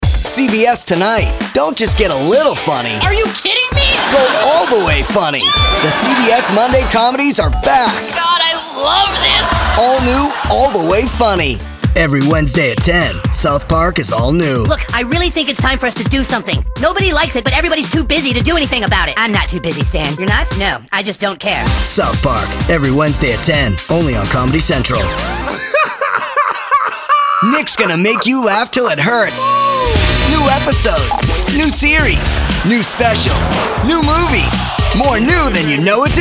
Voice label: promo